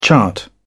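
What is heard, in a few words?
"chart", British English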